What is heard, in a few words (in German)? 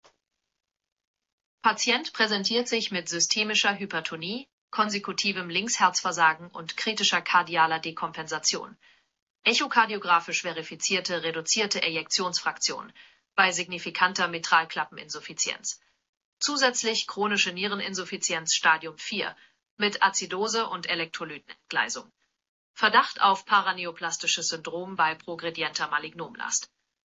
cardio herzinsuffizienz komplex, dictations